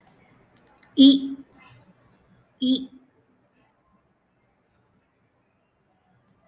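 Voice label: i (the short i, as in 'in'), Tamil, vowels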